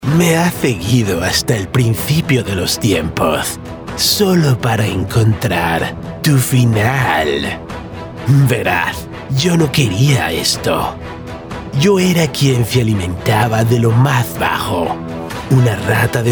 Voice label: spanish latin american video games